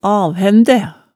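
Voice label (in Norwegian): "avhændæ", Hemsedal dialect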